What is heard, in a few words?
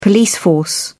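"police force", British English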